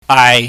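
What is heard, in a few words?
"aai", Cantonese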